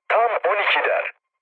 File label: bomb success, mood low, speech tur; War Thunder